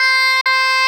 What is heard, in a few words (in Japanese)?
"haihai" C6; 千恋万花; 茉子